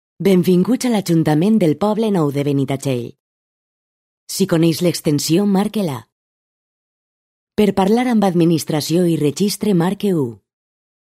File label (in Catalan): Valencià